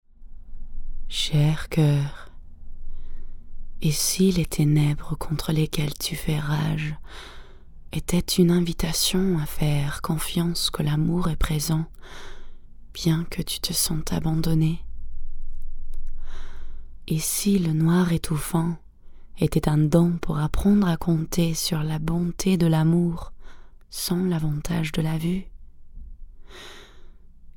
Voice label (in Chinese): 法语女 抒情深沉